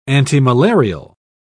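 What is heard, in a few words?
"antimalarial", British English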